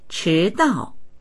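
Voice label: "chi2dao4", Chinese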